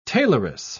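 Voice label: "tailoress", British English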